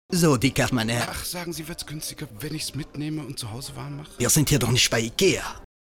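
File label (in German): französisch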